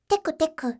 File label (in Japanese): "tekuteku", voice